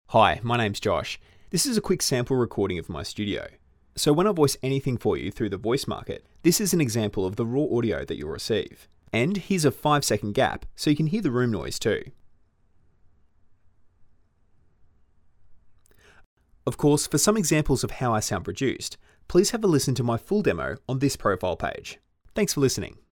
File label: Studio Sound Check